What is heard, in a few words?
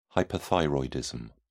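"hyperthyroidism", British English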